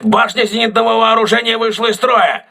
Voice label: aa turret damaged, crew ships russian

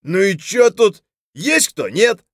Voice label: scav mutter, voice; Escape from Tarkov; scav4

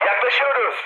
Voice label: near waypoint, mood high, speech tur; War Thunder

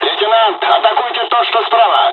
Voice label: dialog, dialogs russian; us midway; War Thunder

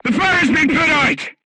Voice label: voice message fire extinguished, vietnamese

